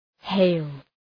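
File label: "hail", English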